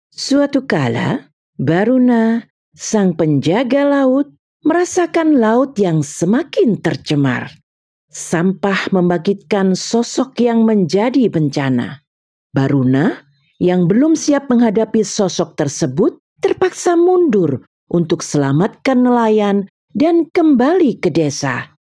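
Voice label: narration, Indonesia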